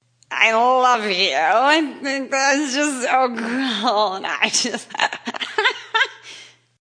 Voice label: Female Drunk Talk; Drunk female talking; Voice